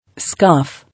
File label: "Scoff", English